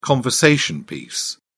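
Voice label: "conversation piece", British English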